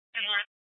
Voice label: Police South Dispatch; Scottsdale Police